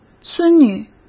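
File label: "孙女", Mandarin Chinese; hsk; chinese-flashcards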